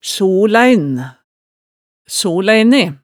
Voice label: "solein", hemsedalsmaalet, tale

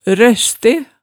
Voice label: "røste", hemsedalsmaalet

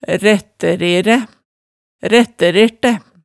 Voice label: "rættæreræ", hemsedalsmaalet, tale

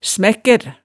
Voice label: "smækkær", hemsedalsmaalet, tale

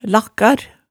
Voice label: "lakkar", hemsedalsmaalet tale

